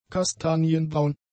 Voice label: "kastanienbraun", German; adjectives

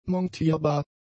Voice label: "montierbar", German; adjectives

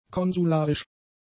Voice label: "konsularisch", German; adjectives

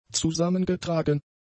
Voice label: "zusammengetragen", German; adjectives

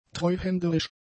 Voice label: "treuhänderisch", German; adjectives

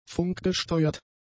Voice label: "funkgesteuert", German; adjectives

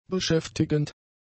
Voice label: "beschäftigend", German; adjectives

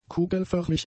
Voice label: "kugelförmig", German; adjectives

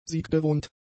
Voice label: "sieggewohnt", German; adjectives

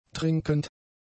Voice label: "trinkend", German; adjectives

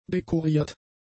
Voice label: "dekoriert", german; adjectives

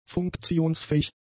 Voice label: "funktionsfähig", German; adjectives

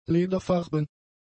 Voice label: "lederfarben", German; adjectives

